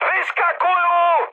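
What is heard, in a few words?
bailout, Czech, mood med; speech; War Thunder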